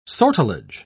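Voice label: "sortilege", British English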